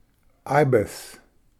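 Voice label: "ibis", English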